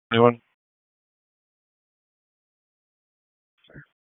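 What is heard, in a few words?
Police Northeast District Disp, radio; Raleigh Police Northeast District Disp; wake p25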